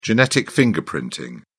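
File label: "genetic fingerprinting", British English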